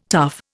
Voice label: "tough", Indian